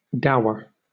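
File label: "dour", British English; pronunciations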